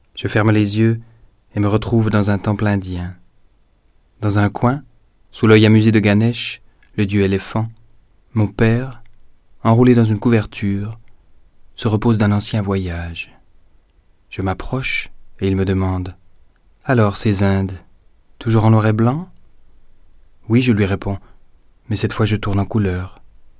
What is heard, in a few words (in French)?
francais